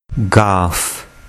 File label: "gaaf", Urdu